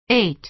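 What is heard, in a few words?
"ate", American English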